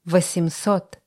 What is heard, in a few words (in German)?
russische zahl 20; Zahlen auf Russisch